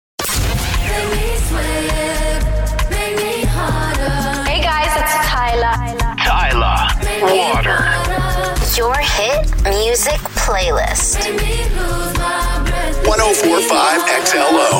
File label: Voiceovers Radio Imaging